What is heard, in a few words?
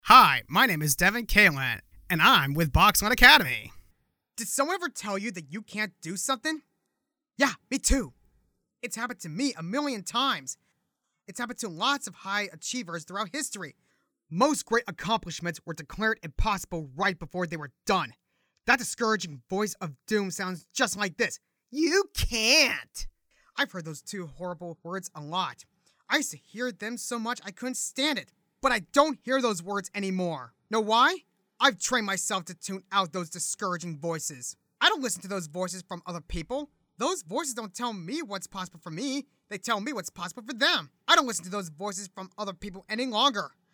Monologue; acting course program called Boxland Academy